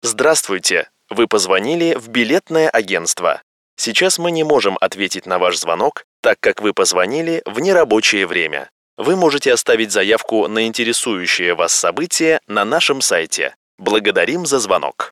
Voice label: IVR